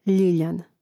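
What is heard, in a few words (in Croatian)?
"ljiljan", Croatian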